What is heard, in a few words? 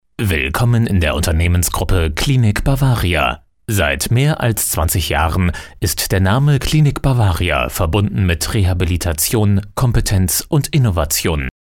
British voice; Deutsch m.